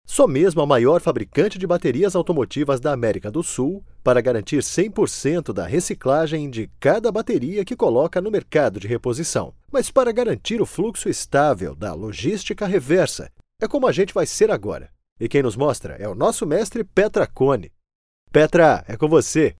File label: Portuguese Brazilian TV shows